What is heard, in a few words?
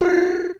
Spawn, Bad Vocals